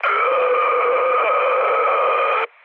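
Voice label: Serbian damaged pilot mood high, speech; War Thunder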